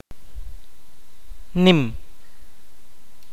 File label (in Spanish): "grande", kaqchikel